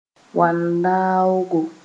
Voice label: "bõan-daoogo", Mooré

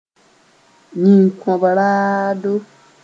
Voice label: "yĩn-kõb-raado", Mooré

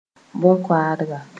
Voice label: "bõn koadla", Mooré

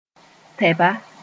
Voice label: "tɛba", Moore

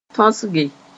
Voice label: "tõsge", moore

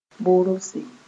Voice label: "borose", Mooré

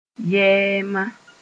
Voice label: "yɛɛma", Mooré

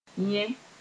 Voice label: "yẽ", Mooré; yes but

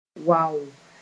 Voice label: "waoo", Mooré

